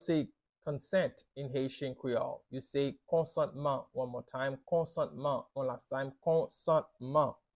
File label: "Konsantman" Haitian Creole pronunciation by a Haitian Creole teacher